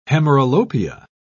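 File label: "hemeralopia", British English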